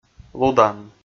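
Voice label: "лудан", Komi, male; kpv audio dict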